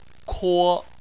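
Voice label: "kuo", Chinese, first tone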